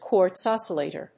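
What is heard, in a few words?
"quartz-oscillator", English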